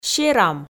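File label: "серам", Komi, female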